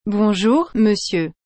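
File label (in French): "Bonjour Monsieur", French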